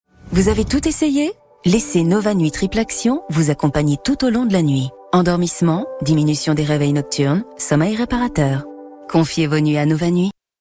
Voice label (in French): Pub TV; NOVANUIT